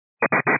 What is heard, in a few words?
122.925 MHz; scanner radio